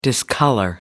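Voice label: "discolor", English, British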